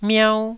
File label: "miau1", Taiwanese